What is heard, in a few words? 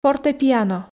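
"фортепиано", Komi, female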